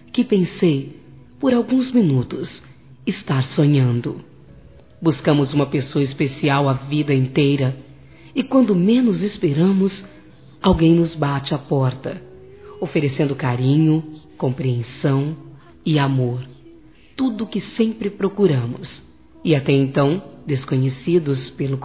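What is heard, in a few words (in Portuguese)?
voce é responsável pela minha felicidade, feminino; Telemensagens; Românticas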